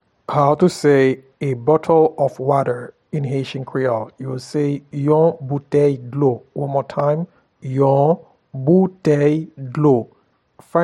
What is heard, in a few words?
A bottle of water in Haitian Creole, yon boutey dlo